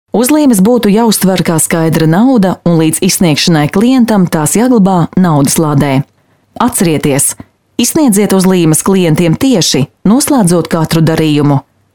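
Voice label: Latvian, female, narration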